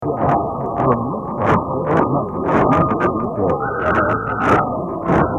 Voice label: newstalk; 1290 cjbk